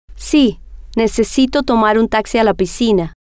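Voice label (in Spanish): si Necesito Tomar Taxi Piscina, spanish, female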